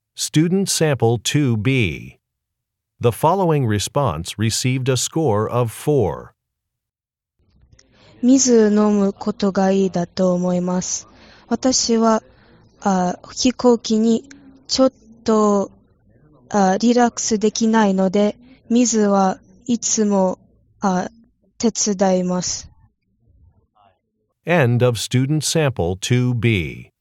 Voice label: Japanese conversation